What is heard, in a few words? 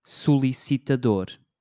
"solicitador", portuguese